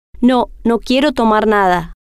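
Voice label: no Quiero Tomar Nada, female, spanish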